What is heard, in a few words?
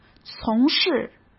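"从事" Mandarin Chinese; hsk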